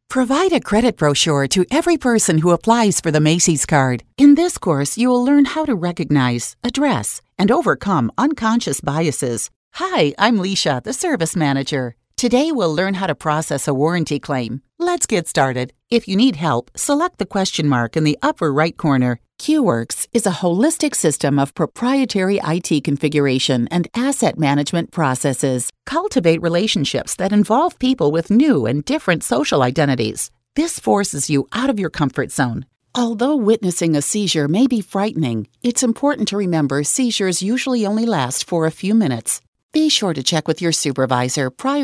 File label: E-Learning Narration